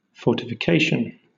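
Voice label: "fortification" British English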